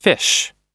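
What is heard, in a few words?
"fish", US English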